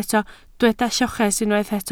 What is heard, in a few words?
"lloches", Welsh